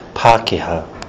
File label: "pakeha", kiwi english